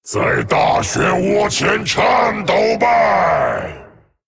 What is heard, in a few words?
Male Dragon Picked; 收藏栏选中 Viewed in the Collection; ▶在大漩涡前颤抖吧！ ▶Tremble before the maelstrom!; zh; 风暴巨龙迦拉克隆